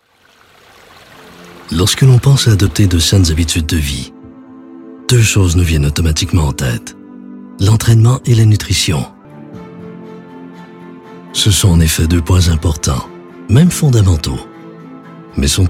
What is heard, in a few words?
French Canadian M; voice over